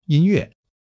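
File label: "yin1 yue4", Mandarin Chinese; 基础汉语40课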